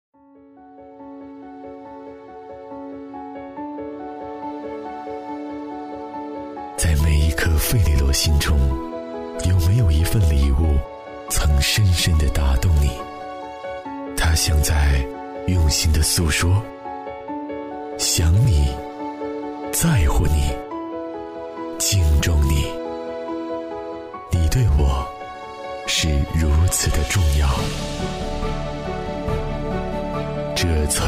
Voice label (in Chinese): 国语男, 广告, 磁性; 费列罗; 在每一颗费列罗里